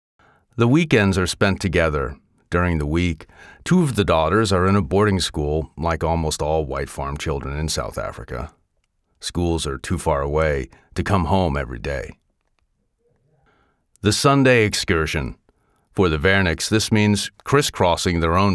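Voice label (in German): feature Doku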